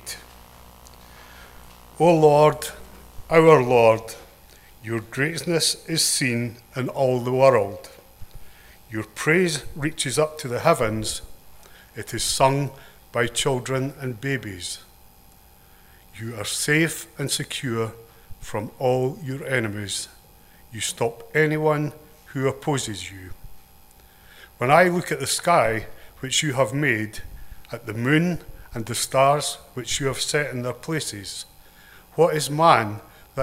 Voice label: read; Psalm Chapter 8